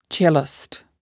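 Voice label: "cellist", English word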